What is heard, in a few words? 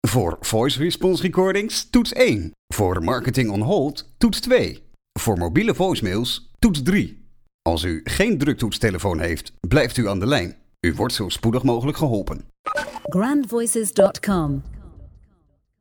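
Dutch male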